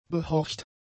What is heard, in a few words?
"behorcht", German; adjectives